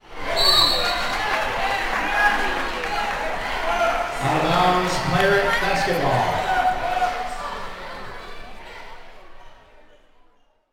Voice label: Basketball Announcer Speaking; Basketball sound effects; Crowd and stadium ambience, announcers, cheering